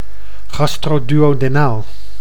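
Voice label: "gastro-duodenaal", Dutch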